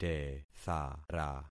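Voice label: "tethara", concatenated syllables; Affixing experiment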